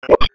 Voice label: Police Sheriff Dispatch

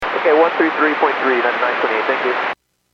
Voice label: Airports 121.5 Emergency, Radio

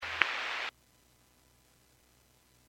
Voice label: Fire Dispatch

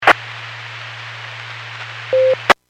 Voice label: Skywarn 146.67; Stevens Point

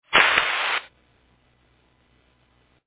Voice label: Fire Dispatch

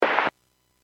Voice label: Aviation 121.5 Emergency

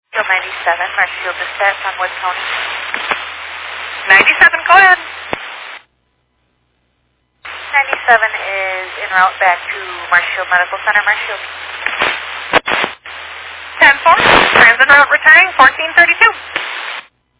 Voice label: Fire Dispatch

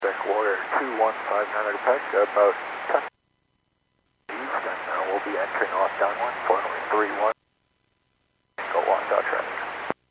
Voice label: Aviation 122.7; KSTE

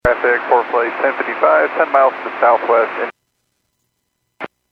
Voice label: Airports 122.7, Radio; KSTE

